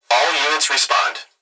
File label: Emergency Human Young Male Voice Police Dispatch All Units Respond; emergency effects